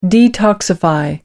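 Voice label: "detoxify", British English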